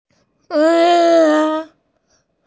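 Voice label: "re4", Mandarin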